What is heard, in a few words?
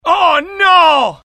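fear grenade, IT; mohaa; dialogue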